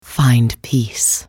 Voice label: attack, en US; Anivia